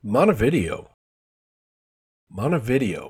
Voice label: "montevideo", Minnesota pronunciation; montuh-VID-eeyo